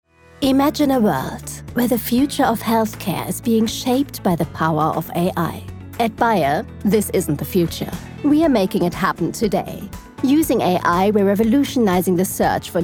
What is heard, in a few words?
Narration English, voiceover; CORP BAYER